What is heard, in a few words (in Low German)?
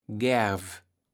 "Gärv", Platt